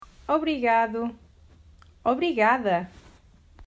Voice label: "Obrigada", Portuguese